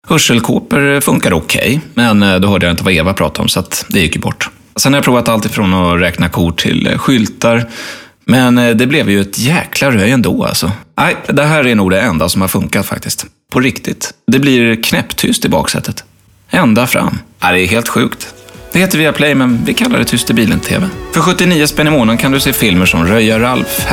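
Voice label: Swedish commercial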